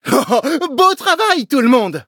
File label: victory, fr; Cookie Run